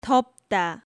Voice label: "Deopda", Korean

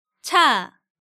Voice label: "Cha", Korean

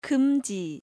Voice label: "geumji", Korean

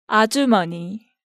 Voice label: "Ajumeoni", Korean

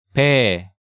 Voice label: "Bae", Korean

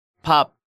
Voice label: "bab", Korean

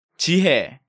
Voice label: "Jihye", Korean

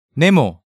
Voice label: "nemo", Korean

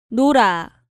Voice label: "nora", Korean

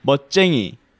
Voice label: "Meotjaengi", Korean

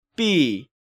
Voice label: "Bbi", Korean

